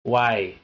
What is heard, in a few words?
"waeg", Anglo Saxon